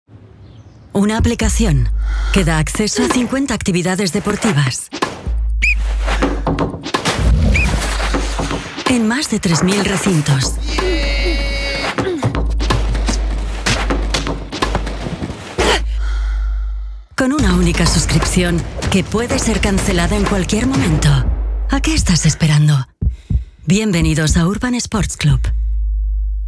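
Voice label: Spanish, Fremdtake Dynamisch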